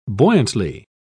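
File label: "buoyantly", British English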